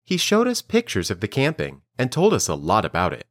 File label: Dictation